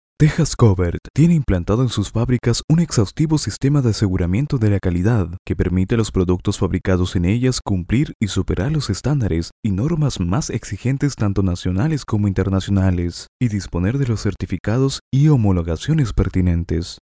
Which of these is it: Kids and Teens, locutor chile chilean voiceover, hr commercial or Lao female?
locutor chile chilean voiceover